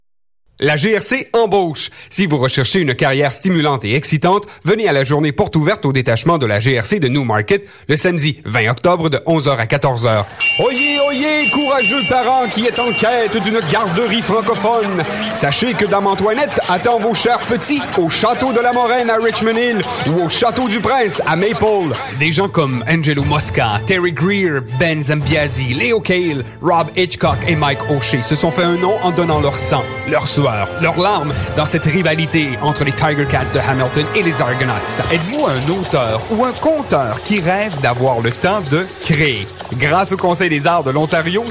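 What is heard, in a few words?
M, French, Canadian, male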